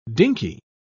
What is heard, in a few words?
"dinkey", pronounce